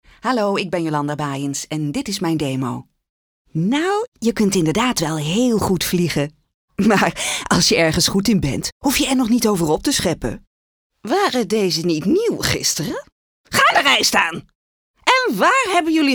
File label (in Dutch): Dubbing nasynchronisatie